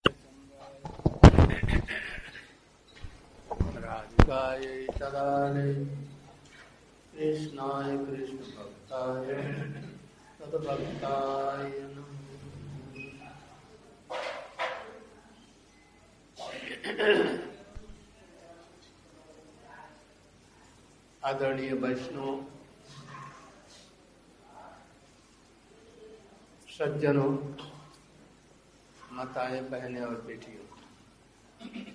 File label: Hindi installation speech; DELHI JANAKPURI